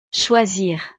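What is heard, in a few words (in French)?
"choisir", French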